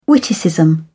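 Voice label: "witticism", English, British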